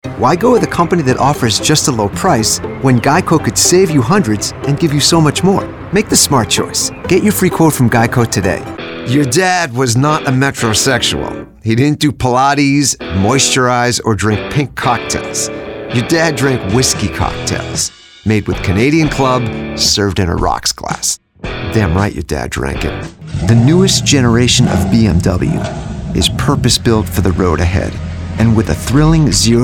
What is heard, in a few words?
voice over reel